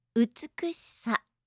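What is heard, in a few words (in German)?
"utsukushisa", japanische Mädchennamen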